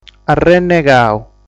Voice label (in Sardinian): "Arrennegau", sardu